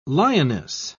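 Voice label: "lioness", British English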